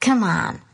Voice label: "come on", voice